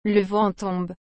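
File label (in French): "le vent tombe", French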